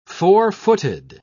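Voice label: "four-footed", English, British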